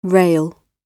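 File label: "rail", British English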